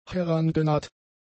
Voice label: "herangenaht", German; adjectives